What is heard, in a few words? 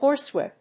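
"horsewhip", English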